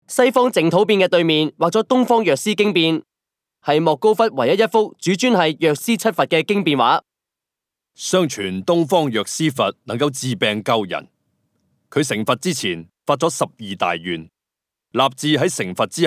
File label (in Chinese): Cantonese; 藥師經變; sutra tableau illustrating the medicine buddha